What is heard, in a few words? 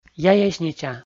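"яеснича", Komi-Zyrian, male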